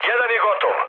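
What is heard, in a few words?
Serbian player killed enemy mood med, speech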